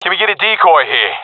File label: request decoy, professional; vo; Counter Strike Model Pack; CS:GO